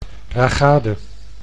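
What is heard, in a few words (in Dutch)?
"ragade", Dutch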